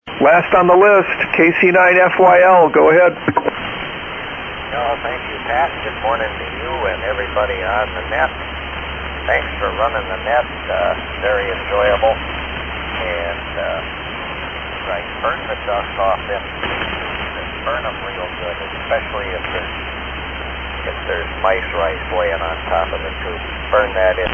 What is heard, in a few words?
Collins S-Line 75S-1 32S-1 & 30L-1 Amp; Fort Atkinson, Wi.